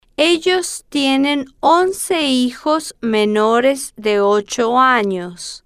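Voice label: "ellos tienen once hijos menores de ocho anos", Spanish, female, slow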